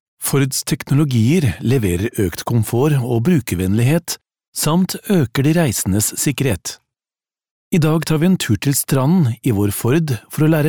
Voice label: norwegian male corporate

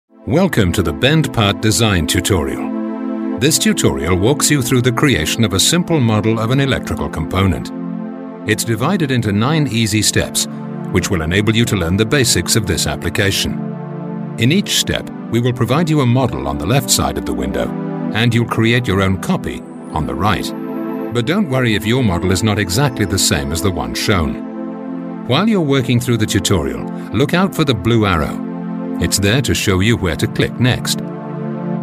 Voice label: elearning

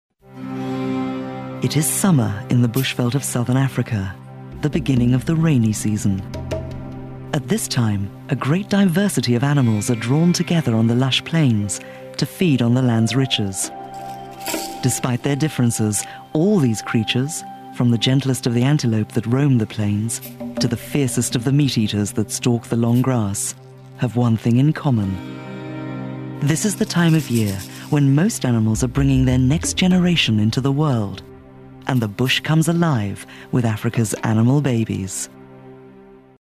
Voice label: warm documentary

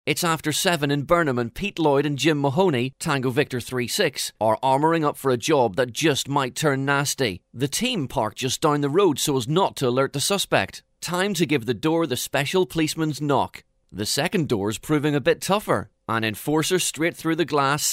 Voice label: new documentary

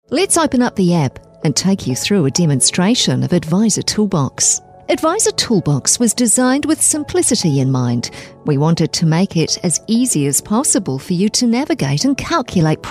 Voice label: new zealand explainer

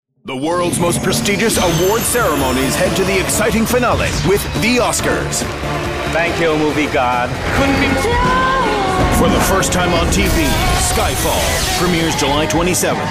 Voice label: american movies promos, demos

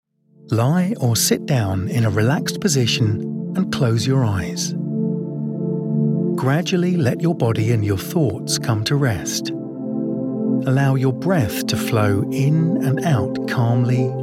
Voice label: guided meditation

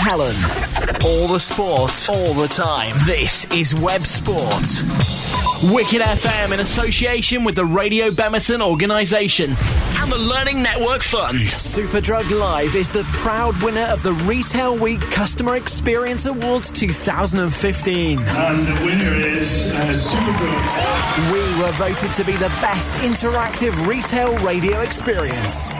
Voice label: station imaging

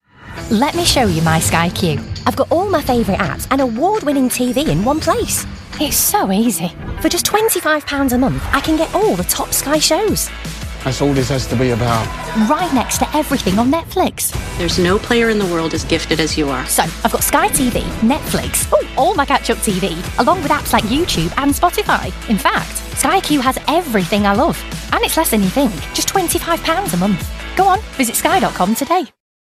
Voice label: commercial

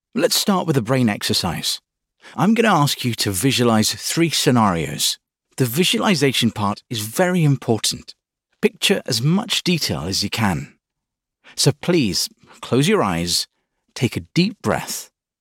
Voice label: british male voice elearning

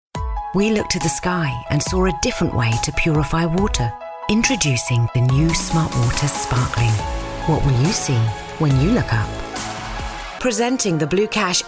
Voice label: commercial us

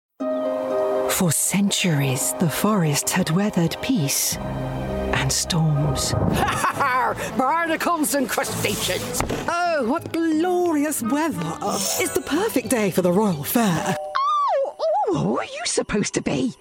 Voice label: animation

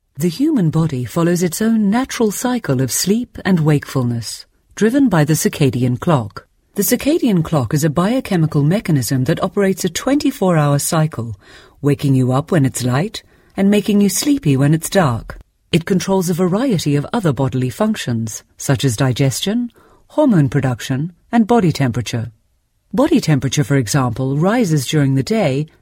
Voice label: narration

